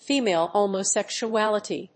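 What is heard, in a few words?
"Female Homosexuality", English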